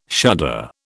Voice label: "shudder", British

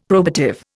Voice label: "probative", Indian; Dictionary

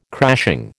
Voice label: "crashing", American

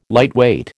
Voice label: "lightweight", American

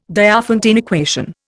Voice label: "Diophantine equation", Indian

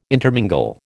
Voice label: "intermingle", American

